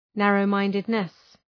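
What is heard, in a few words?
"narrow-mindedness", English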